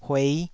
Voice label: "huei5", Taiwanese syllable

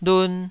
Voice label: "lun2", Taiwanese

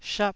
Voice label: "shap4", taiwanese Syllables

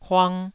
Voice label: "huang2", taiwanese

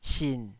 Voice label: "sin3", taiwanese Syllables

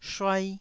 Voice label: "shuai1", taiwanese Syllables

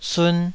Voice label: "sun2", taiwanese Syllables

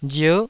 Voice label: "rier9", taiwanese syllable

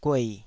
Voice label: "guei3", taiwanese

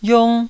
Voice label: "yong1", taiwanese

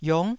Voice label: "iong9", taiwanese syllable

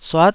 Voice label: "suat9", taiwanese Syllables

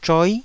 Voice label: "zhoi9", Taiwanese syllable

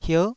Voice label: "hierh9", Taiwanese syllable

